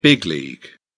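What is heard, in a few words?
"big league", English, British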